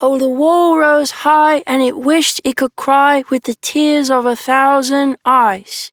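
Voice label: Child Rhymes Sad Child Male; Duviri; Childrens Rhymes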